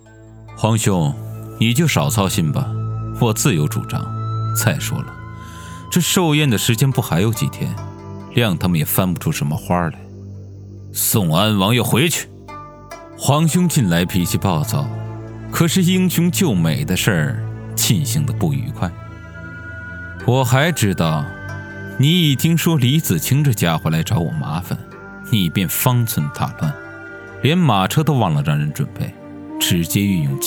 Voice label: 青年皇上样音

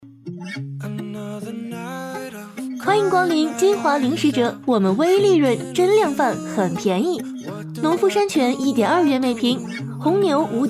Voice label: 零食试音

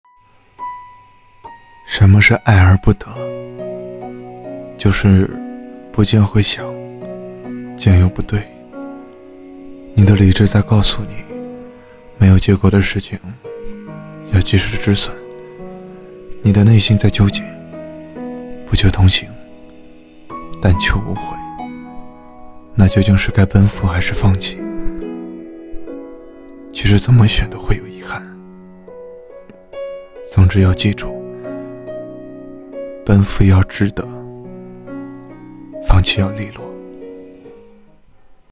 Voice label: 爱而不得, 男

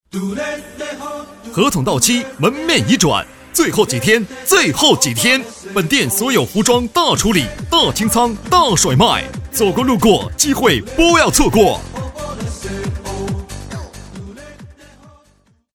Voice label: 促销

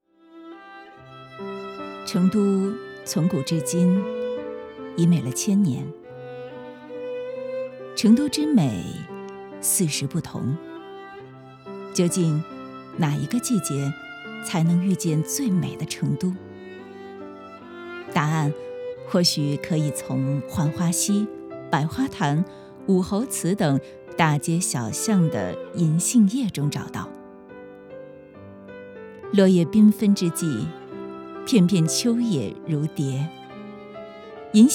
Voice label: 音乐剧节宣传

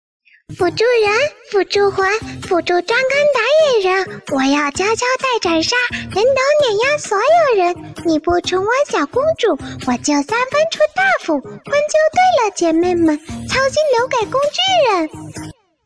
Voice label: 萝莉音 少女音 游戏配音; 辅助之歌

